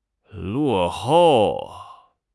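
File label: "luo4 hou4", Chinese; 汉语口语新时代-初级下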